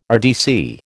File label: "RDC", American